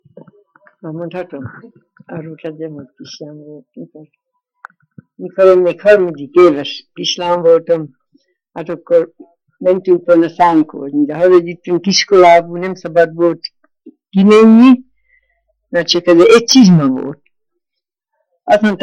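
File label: dialect collection; nyelvjaras gyujtesek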